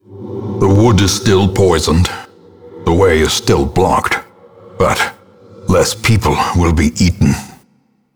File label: Narration; Darkest Dungeon; boss kill weald